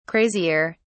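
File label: "crazier", English, British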